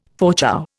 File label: "Fauchou", Indian